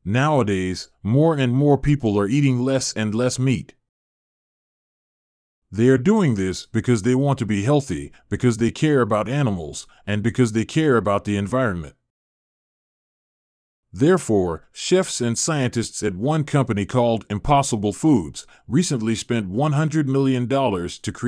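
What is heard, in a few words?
American; impossible burger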